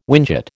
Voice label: "whinchat", American